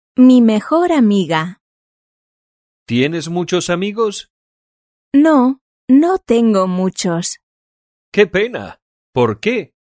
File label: dialog